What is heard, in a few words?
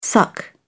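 "suck", British English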